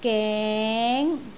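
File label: "geng2", Cantonese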